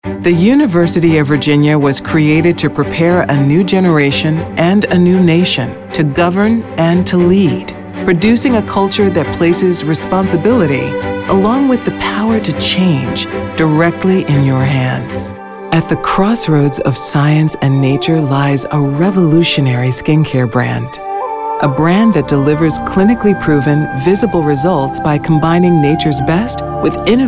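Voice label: corporate narration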